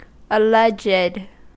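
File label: "alleged", US English; pronunciations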